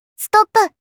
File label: "Stop", Kawaii Voice, Commands